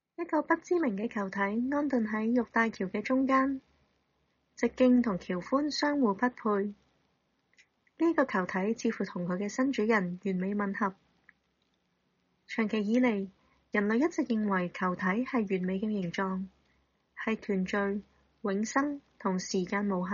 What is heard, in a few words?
Canto